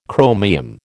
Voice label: "chromium", American

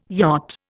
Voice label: "yacht", Indian

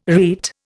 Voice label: "rete", Indian

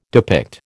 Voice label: "depict", American

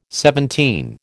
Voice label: "seventeen", American

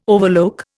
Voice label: "overlook", Indian

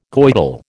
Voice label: "Goidel", American

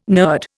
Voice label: "nurd", Indian

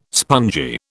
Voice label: "spongy", British

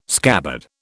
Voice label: "scabbard", British

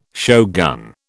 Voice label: "shogun", British

